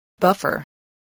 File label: "buffer", spelling